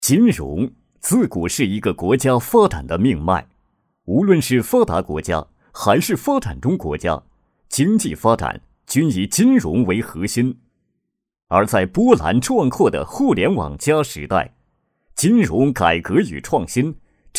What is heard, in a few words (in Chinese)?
男 专题; 扬名基金